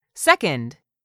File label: "SECOND", english; 3000 words